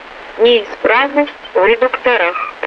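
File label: transmission malfunction, Russian; DCS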